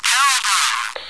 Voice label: radio no